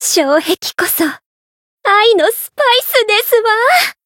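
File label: win barely, Japanese; pom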